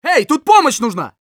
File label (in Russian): Scout help me, ru; Эй, тут помощь нужна!